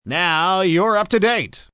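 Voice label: News Up To Date Male, Broadcast, announcer, greetings/wrap-ups, male; Voice Box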